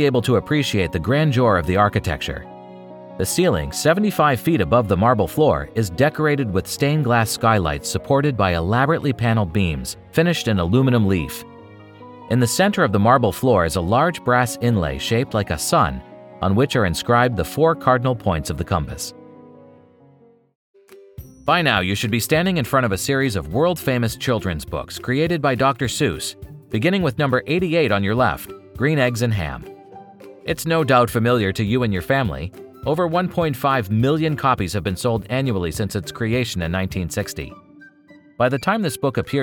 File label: Audio Tour